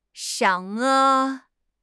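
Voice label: "眼色", Mandarin Chinese; HSK6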